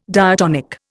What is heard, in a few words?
"diatonic", Indian